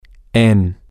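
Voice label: at in on, Spanish, male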